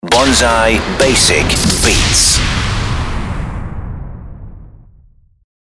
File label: basic beats liner; bonzai